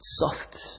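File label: "soft", Nigerian Pidgin, male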